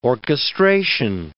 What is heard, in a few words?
"orchestration", English word